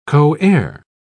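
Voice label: "coheir", British English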